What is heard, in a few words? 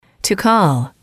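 "to call", English, female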